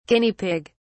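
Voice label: "Guinea Pig(s)", British English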